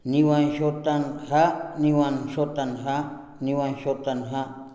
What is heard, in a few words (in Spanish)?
arrecife, Tojolabal